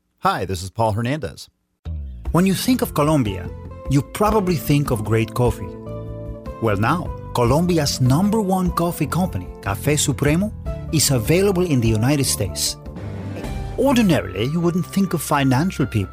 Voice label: Accent